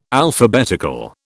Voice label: "Alphabetical", British

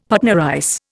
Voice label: "Patna rice", Indian; Dictionary

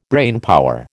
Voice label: "brainpower", American

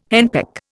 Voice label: "henpeck", Indian

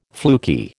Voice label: "fluky", American

